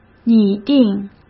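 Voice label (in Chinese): "拟定", Mandarin Chinese; chinese-flashcards; hsk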